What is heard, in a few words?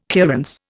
"clearance", Indian